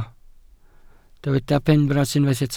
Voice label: "benfras", Welsh